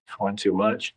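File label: Voice Acting es M, Spanish, male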